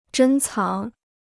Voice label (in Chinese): "珍藏", Chinese